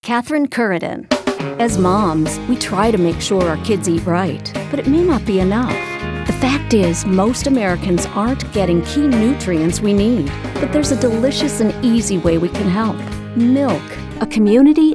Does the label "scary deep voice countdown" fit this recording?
No